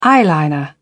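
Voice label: "eyeliner", British English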